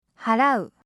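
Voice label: "harau", Japanese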